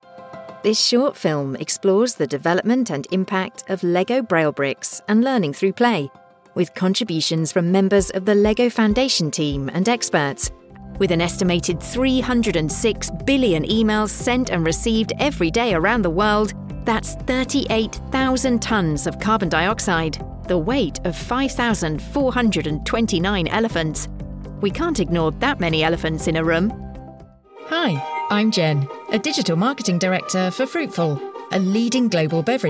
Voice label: Corporate